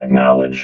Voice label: "acknowledge", robot vox

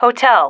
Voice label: "hotel", female

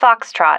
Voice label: "foxtrot", female

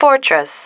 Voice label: "fortress", female

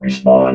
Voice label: "respawn", robot

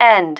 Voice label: "end", female

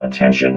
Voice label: "attention", robot vox

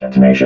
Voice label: "detonation", robot

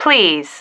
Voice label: "please", female

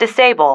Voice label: "disable", female

